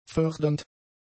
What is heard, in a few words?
"fördernd", German; adjectives